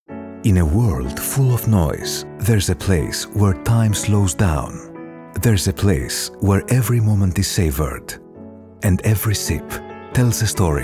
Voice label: Greek Male English sample

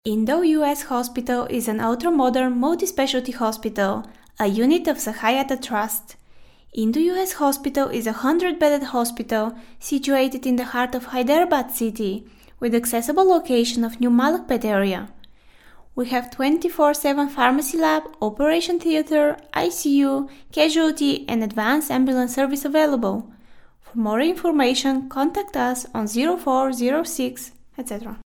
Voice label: Bulgarian English F IVR

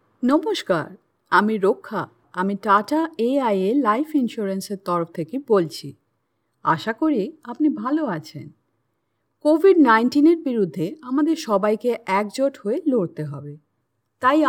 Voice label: Bengali F IVR

